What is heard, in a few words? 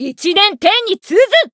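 Voice growth Japanese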